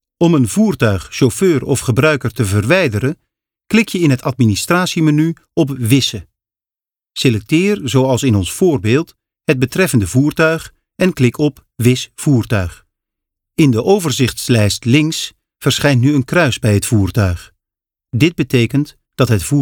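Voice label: NL M elearning, Dutch, male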